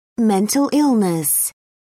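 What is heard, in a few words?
"mental illness", British English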